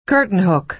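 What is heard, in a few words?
"curtain hook", American English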